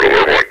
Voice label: alert, combine soldier vo; hl2; Source engine

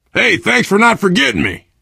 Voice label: to the rescue thanks, survivor voice biker; source engine; left 4 dead 2

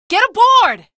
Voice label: get aboard, female; video games; npc; source engine; episodic; episode 1

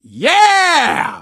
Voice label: hurrah, survivor voice

